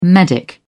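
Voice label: "medic", English, British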